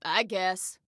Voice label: Command Acknowledged, Female